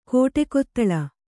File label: "ಕಾಡುಕಿತ್ತಲೆ", Kannada